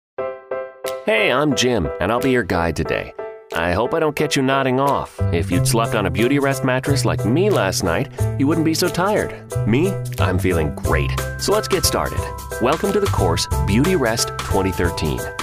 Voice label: Male Voice Over Elearning

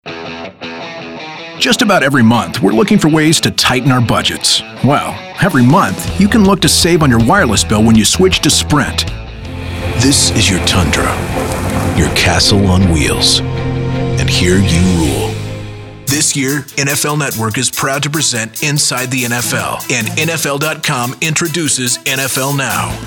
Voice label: Male Voice Over, Commercial

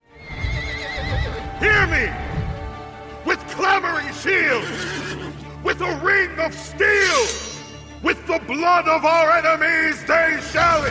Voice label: Male Voice Over Character Gaming

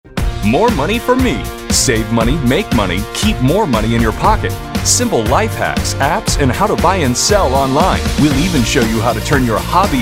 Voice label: Male Voice Over Talents Affiliate

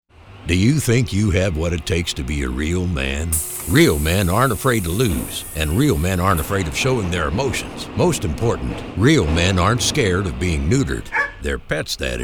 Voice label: Commercial, Male Voice-Over; COMMERCIAL DEMO

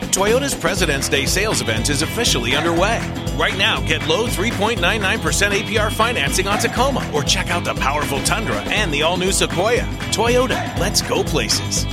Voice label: Male Voice Over Commercial; Toyota

